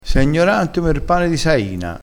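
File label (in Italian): "gnorante om er pane", vocabolario dialettale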